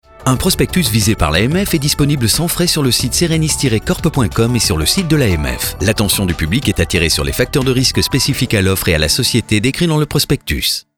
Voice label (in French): Mention Légale Normal